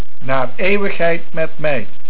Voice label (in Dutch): "Eeuwigheid", Dutch